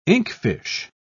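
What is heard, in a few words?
"inkfish", British English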